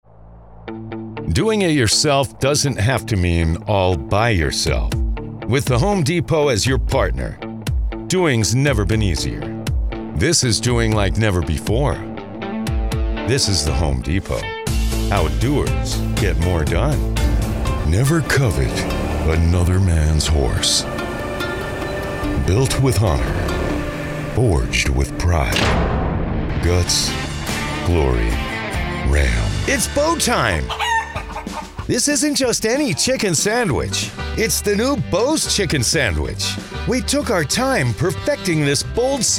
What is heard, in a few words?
english male voices american accent